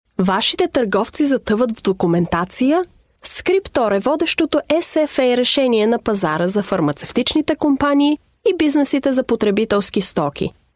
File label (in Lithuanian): (MOT) Bulgaru balsas, moteris